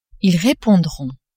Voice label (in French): "ils repondront", French